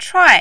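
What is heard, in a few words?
"chuai", Mandarin, tone 4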